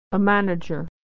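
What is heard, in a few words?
"a manager", American English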